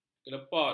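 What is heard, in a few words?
"kelepot", Malay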